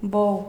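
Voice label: "bou", Spanish; gleitlaute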